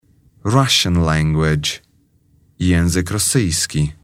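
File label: "russian language", English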